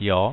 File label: "Yes", es, Adult, Male; sv